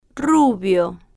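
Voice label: "rubio", Spanish, female, slow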